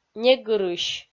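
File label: "негырысь", Komi-Zyrian, female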